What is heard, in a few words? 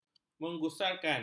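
"menggusarkan", Malay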